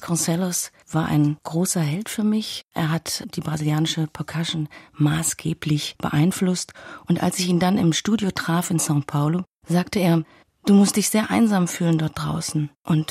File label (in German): intro ohne musik